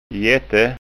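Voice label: "jette", Scanian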